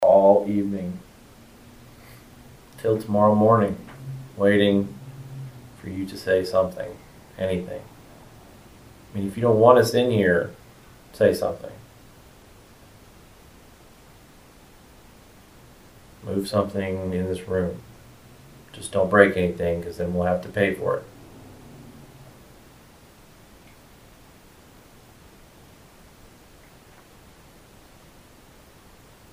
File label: Continuous mumbling over voice